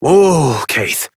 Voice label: Horse Stop Walk Adult; Duviri

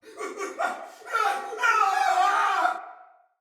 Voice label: Death Fire Soldier Male; Battle; Barks; Dialog; Sinecure Soldier Male A

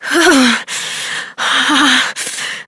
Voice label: Operator Voice

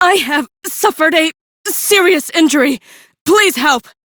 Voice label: Need Revive, Female; en; Warframe; Railjack Crew; Cephalon Suda

